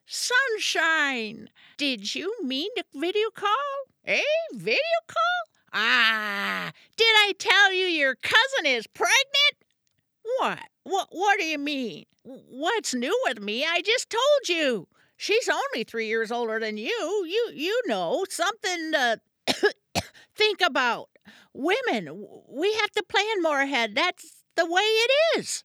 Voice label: Grandma Animation